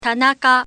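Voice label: "tanaka", Japanese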